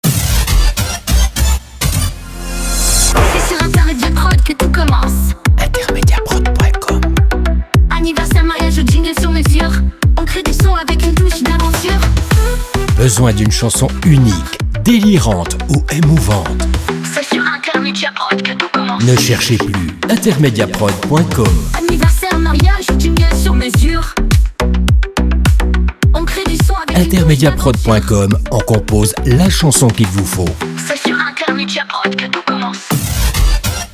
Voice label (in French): publicite radio message court; Intermediaprod